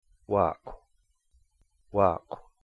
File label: "waakw", to one side of it, Cahto; "w" as in "wok"